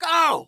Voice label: Human Male Yells And Cheers Go

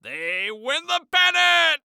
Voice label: Sports Human Voice Male Baseball Announcer; They Win The Pennant

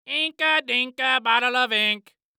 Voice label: Cartoon Voice Goofy; AdobeCC

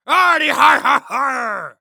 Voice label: Human Pirate Say Har De Har

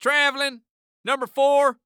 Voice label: Sports Human Voice Male Basketball Referee; Traveling Number Four

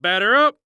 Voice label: Sports Human Voice Male Young Man Baseball Umpire; Batter Up

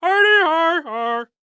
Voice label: Cartoon Voice "Hardy Har Har"; AdobeCC

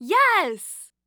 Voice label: Human Female Yes

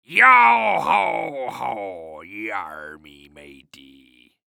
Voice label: Human Pirate Say Yo Ho Ho Yarr Me Matey

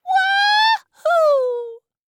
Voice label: Cartoon Voice "Wah Hoo" Child; AdobeCC

